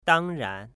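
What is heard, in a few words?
"dangran", Chinese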